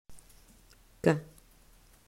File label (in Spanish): pronunciación fonema k